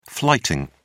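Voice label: "flighting", British English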